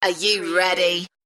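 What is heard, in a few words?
UK female, voice drop; Are you ready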